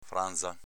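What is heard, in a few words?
"franza", Venetian dialect; s.f. frangia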